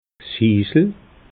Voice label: "hiisel", alsace

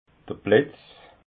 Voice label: "blitz", alsace

